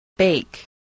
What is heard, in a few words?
"bake", english